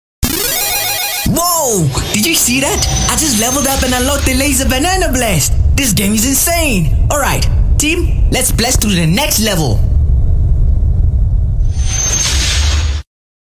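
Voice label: Animation Character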